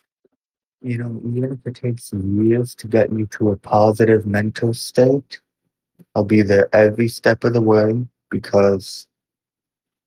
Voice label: voice message